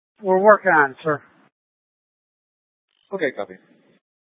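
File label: Mesa Police Department Superstition Dispatch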